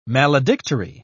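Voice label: "maledictory", British English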